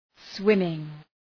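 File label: "swimming", English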